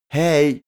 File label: male teen hey